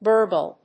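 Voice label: "burble", English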